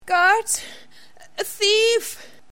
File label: desperate Thief